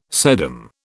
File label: "sedum", British